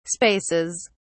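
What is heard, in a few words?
"spaces", English, British English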